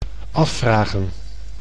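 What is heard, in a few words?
"afvragen", Dutch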